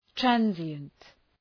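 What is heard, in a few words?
"transient", English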